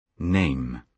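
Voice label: "name", British English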